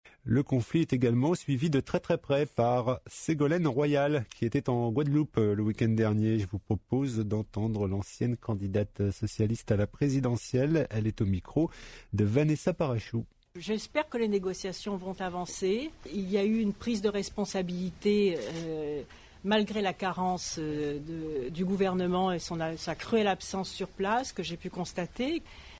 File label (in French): Reportage; Ségolène Royal